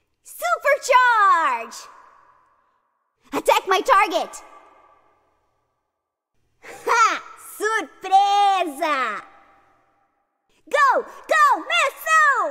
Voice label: Game ENG, en-AU